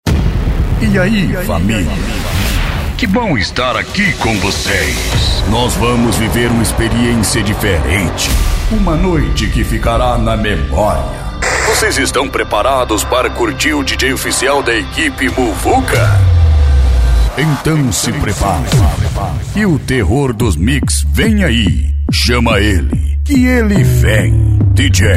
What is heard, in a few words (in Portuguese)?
locutor